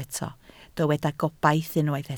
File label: "gobaith", Welsh